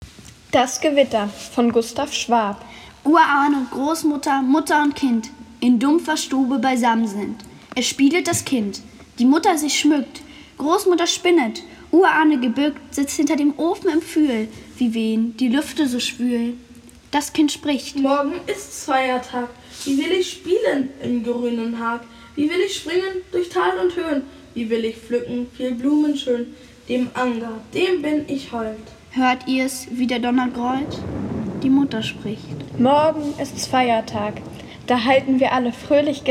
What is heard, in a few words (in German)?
Ballade sprechend gestaltet